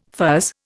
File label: "fuzz", Indian